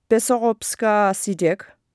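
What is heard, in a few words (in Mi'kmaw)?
"pseoqopskasitek", Mi'kmaq; wowkwis aqq kaqaquj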